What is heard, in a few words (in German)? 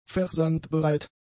"versandbereit", german; adjectives